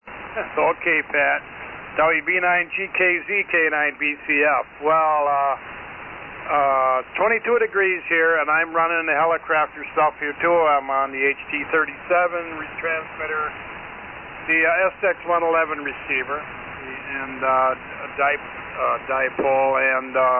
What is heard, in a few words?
Hallicrafters HT-37 & SX-111 EV-610 Mic; Greenleaf, Wi.